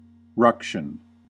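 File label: "ruction", American English; pronunciations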